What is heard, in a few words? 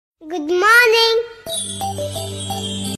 Cute Good morning Ringtone